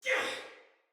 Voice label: Attack Normal Soldier Female